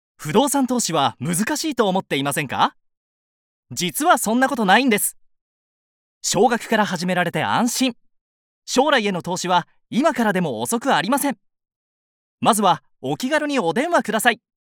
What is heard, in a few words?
narration